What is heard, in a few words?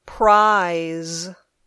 "prize", English